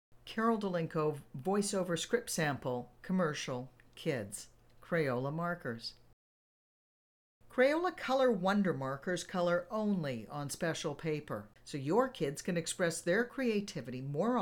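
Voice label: voice sample commercial kids, female voice; crayola2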